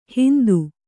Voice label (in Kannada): "ಹಿಂದೂ", Kannada